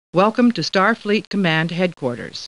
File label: Star Trek Computer Voice